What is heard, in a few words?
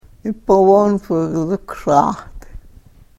karuk